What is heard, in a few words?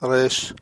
"resh", hebrew